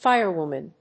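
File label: "Fire woman", English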